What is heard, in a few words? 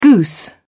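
"goose", British English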